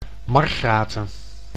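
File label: "Margraten", Dutch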